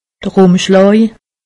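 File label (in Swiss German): "umschlaa", Alsatian; medecine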